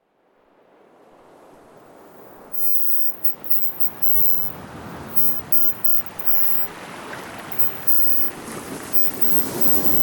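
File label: sea subliminal affirmations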